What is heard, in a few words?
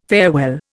"farewell", Indian